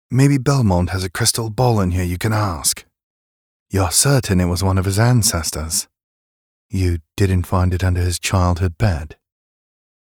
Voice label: 外籍英男 深情独白

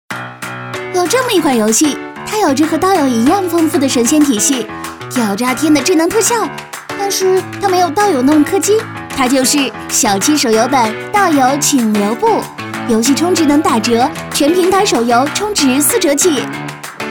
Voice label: 女 飞碟 手游

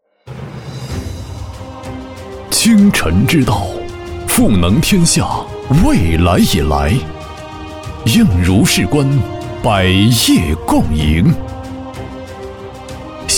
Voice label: 男 宣传 浑厚大气有感情; 清晨集团

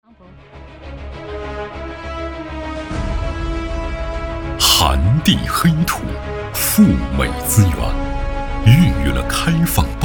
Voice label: 男 专题 党政 片 大气浑厚; 中国-林口

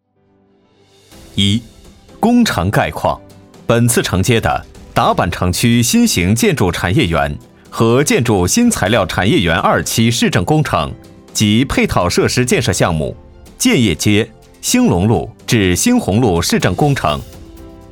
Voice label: 男 工程汇报

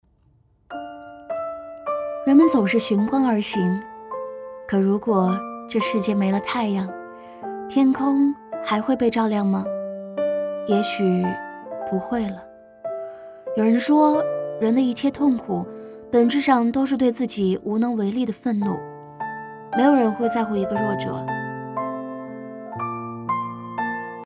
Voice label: 女, 旁白, 微电影; 人们总是寻光而行

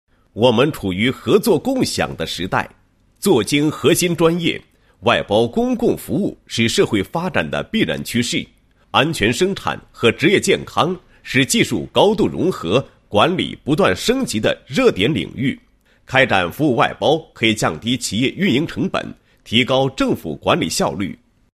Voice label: 男 解说词; 服务外包解说词; 祥源服务外包解说词

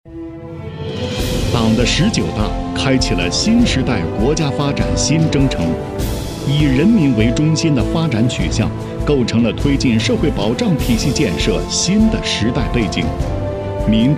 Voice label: 男 专题; 二十大

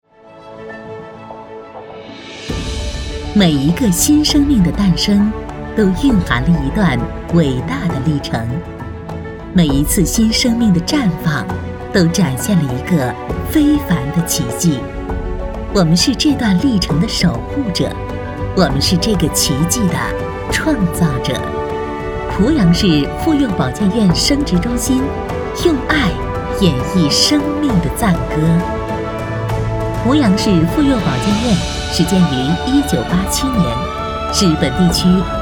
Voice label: 女 宣传片; 妇幼保健院

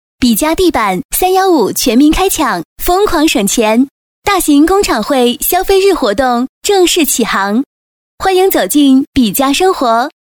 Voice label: 女 广告 地板; 比嘉地板

